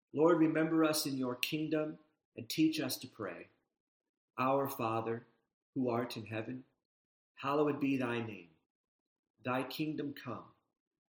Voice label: english